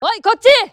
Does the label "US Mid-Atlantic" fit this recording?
No